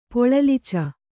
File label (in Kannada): "ಪೊಳಲಿಚ", kannada